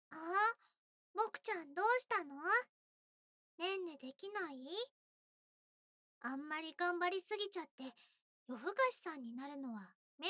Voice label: 安眠誘導添い寝（BGMあり・左右反転）; 菜々子ママ

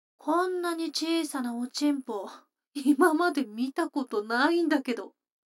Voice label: 女の子 台詞, ASMR

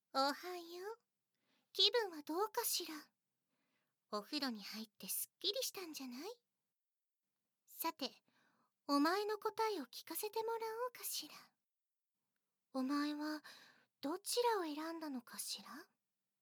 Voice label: ささやき; 首絞め処刑編

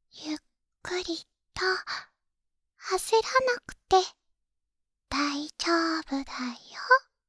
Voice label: 励ましボイス

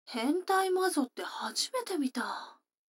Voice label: 女の子台詞

